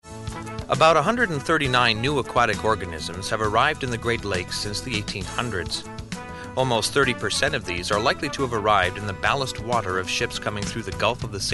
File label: Promotional Read